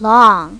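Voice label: "long", English; My Talking Dictionary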